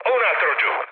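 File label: Italian player killed enemy mood low, speech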